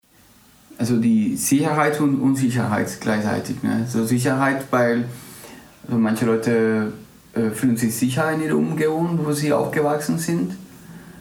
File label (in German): spanisch guatemala